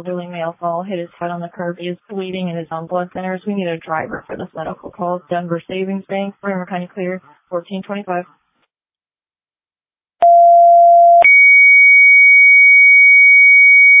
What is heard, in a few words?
Fire, dispatch